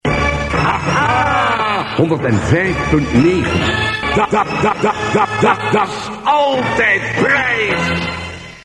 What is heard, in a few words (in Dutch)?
Jingle prijs